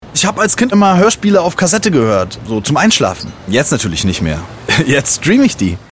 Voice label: Funk-Spot, spontaneous, thinking, casual, Commercial, German; Radiozentrale (Role: Passerby)